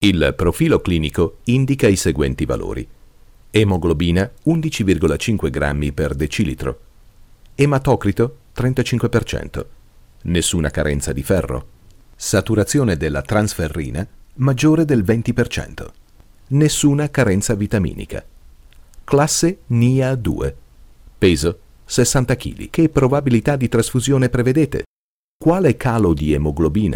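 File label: Italian narration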